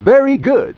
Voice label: "Very Good", Yamaha DD-35

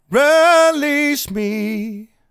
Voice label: "Release Me", vocal phrases; Ultimate Vocals

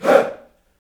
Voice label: "HEY", Utterances; Roland LCDP11 Africa VOL-1

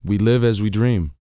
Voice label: talk, sci-fi, vocal; ILIO; Vocal Planet

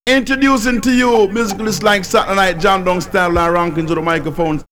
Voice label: Jamaican ranking, vox; X-Static Goldmine

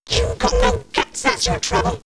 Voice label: Man Machine, robot vox; No Guts; X-Static Goldmine

